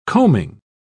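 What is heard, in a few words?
"combing", British English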